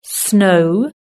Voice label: "snow", british english